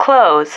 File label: "close", female